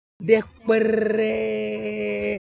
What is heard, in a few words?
Siwu Motion